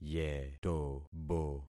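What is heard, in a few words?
"yetobo", concatenated syllables; Affixing experiment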